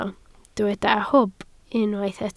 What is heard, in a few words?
"hwb", Welsh